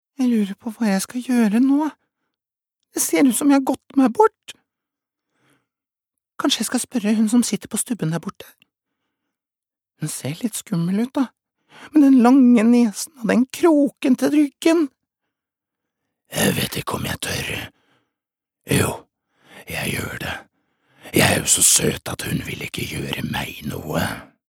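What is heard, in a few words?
no dub character, mann; norsk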